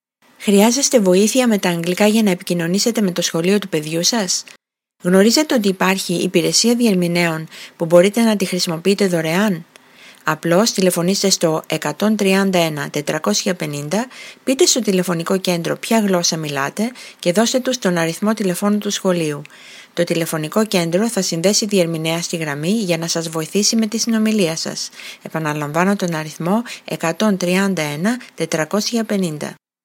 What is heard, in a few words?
greek; public schools; going to a public school